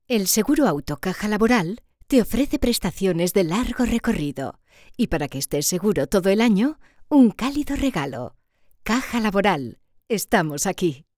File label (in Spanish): CUÑA; CAJA LABORAL